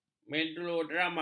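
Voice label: "melodrama", Malay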